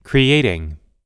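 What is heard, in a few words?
"creating", US English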